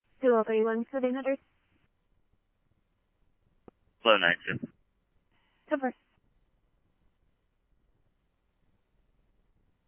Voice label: Scottsdale Police, scanner; SPD - PD1; RWC; H-Scottsdale

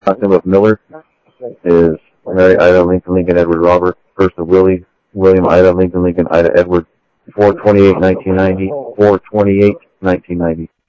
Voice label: Info Sheriff Common, scanner audio; MCSO - Info Sheriff - Common; ThompsonPeakScot

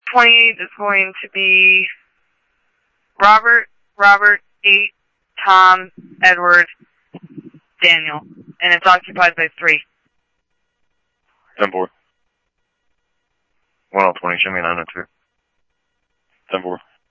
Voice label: Scottsdale Police, scanner

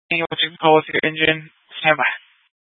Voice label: Fire Dispatch

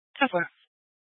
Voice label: Scottsdale Police, Simulcast

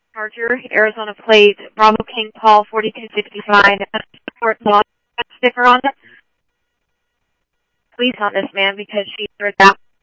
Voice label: Police, scanner audio

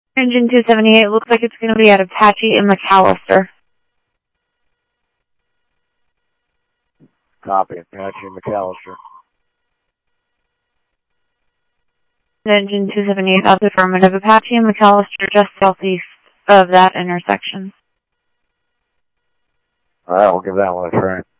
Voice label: Phoenix Fire, scanner; H-Scottsdale; PHXFD K7

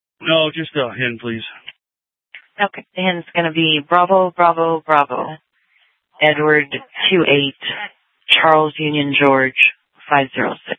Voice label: Sheriff, scanner P25

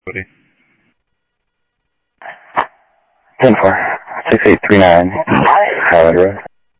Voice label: South Scottsdale Sheriff, scanner audio

